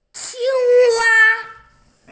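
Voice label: "frog", hearing impaired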